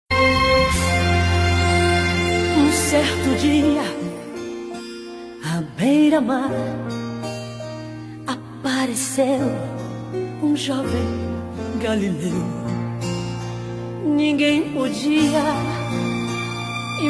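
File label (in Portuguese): NAMORADOS EVANGELICO male, telemensagem; telemensagem dia dos namorados evangelica geral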